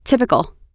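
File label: "typical", English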